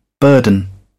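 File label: "burden", British English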